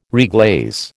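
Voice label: "reglaze", American English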